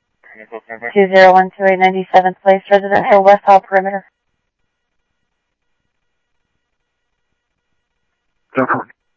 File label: Police, scanner audio